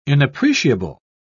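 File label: "inappreciable", British English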